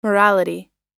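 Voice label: "morality", US English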